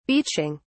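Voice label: "beaching", English, British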